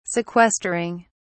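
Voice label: "sequestering", British English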